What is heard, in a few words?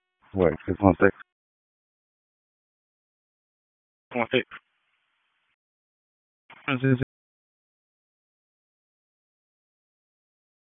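Dispatch, p25; WSO DISP 1; wake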